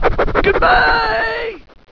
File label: annoyed; war2; humans; gnomish flying machine; ... Goodbye